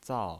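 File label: "zao" Mandarin Chinese pinyin voice, fourth tone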